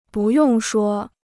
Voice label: "不用说", Chinese

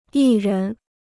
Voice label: "艺人", Chinese

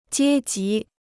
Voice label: "阶级", Chinese

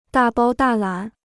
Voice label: "大包大揽", Chinese

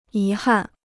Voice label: "遗憾", Chinese

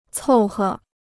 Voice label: "凑合", Chinese

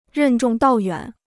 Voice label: "任重道远", Chinese

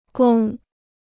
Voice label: "宫", Chinese

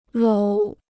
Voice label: "搂", Chinese

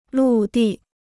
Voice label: "陆地", Chinese

